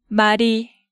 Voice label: "Mari", Hangeul sounds; DigitalSoju